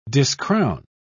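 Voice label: "discrown", British English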